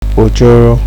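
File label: "ojoro", Nigerian Pidgin, male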